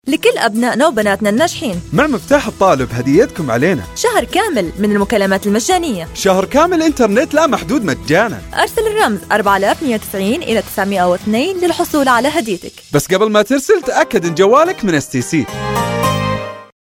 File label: gulf saudi arabia riyadh female